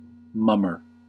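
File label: "mummer", US English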